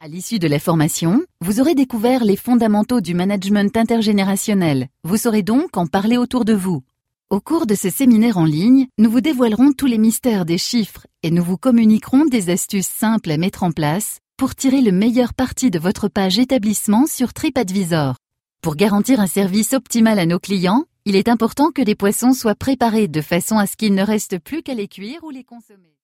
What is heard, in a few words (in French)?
fr